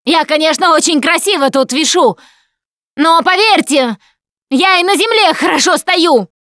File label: ledge hang start, russian; Producer